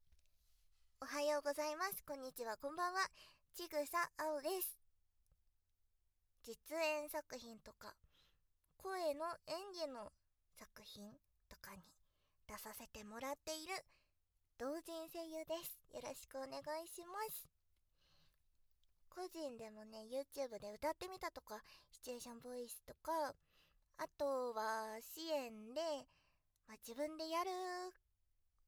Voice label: 自己紹介(バイノーラル)

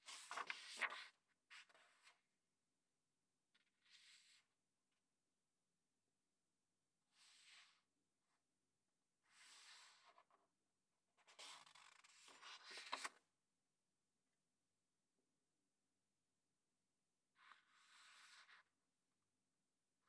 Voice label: 執着心がやばいヤンデレ妹の耳かき, ASMR

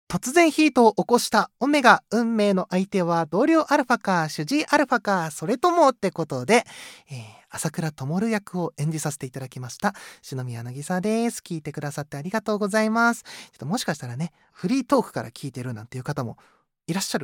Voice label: フリートーク

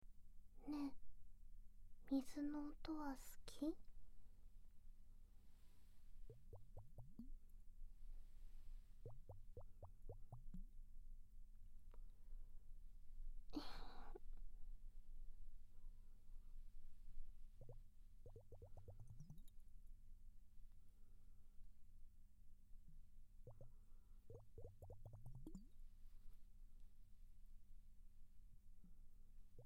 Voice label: とぽとぽ囁き アイスボール; しろのおもちゃ

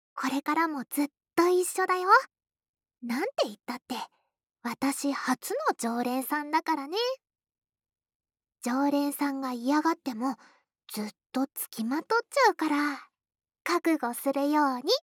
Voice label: シチュエーションボイス; 和み処 一番星～距離感の近い甘えん坊な仲居『月さん』が一生懸命ご奉仕する癒しの 一日～

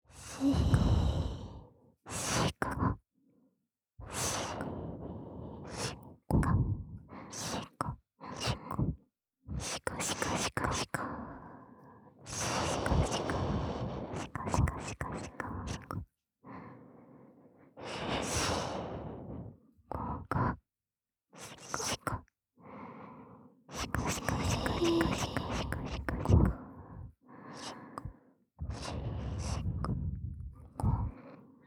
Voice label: 両耳 シコシコ 囁き責め; 汎用性抜群のオナサポ素材

